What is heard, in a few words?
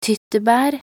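"tyttebær", Norwegian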